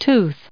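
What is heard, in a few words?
"tooth", English, us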